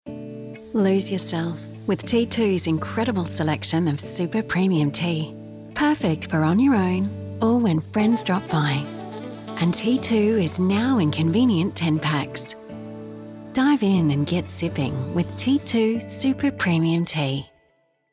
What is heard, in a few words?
dreamy calm premium tea, voiceover; t2